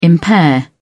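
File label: "impair", British English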